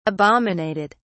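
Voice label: "abominated", British English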